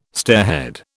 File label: "stairhead", British